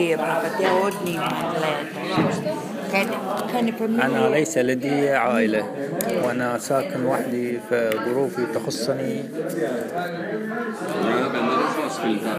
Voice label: Arabic